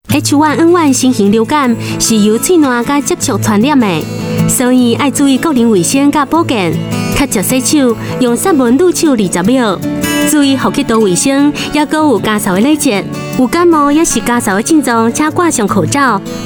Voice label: Novel H1N1 Hokkien Taiwan, Female

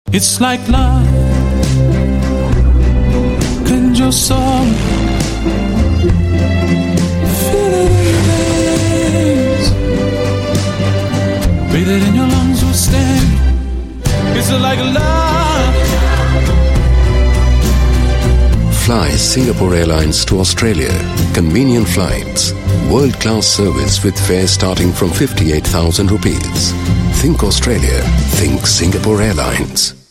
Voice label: Indian TVC English, Male; Singapore Airlines

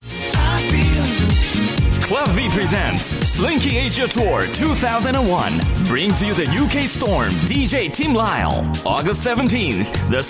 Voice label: Japanese English, Japanese Male; Slinky Asia Tour

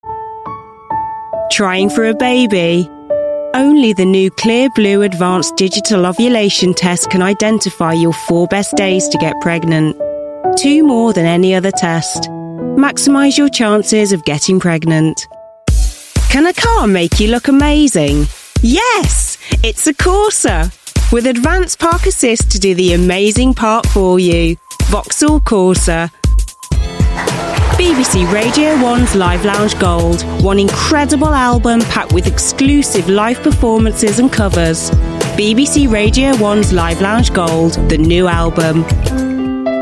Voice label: UK English Commercial Voice

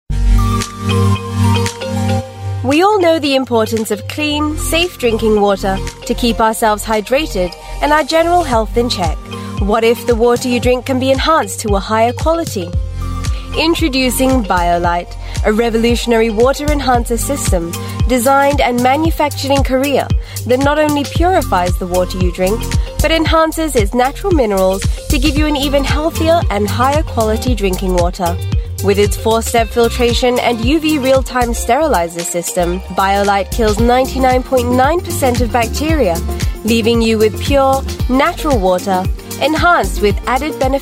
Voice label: Asian English Educational; Biolytes

